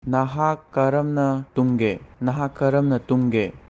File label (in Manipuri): "Nahak karamna tumge?", Manipuri; How did you sleep?